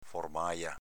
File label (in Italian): "formaja", dialetto veneto; s.f. forma di formaggio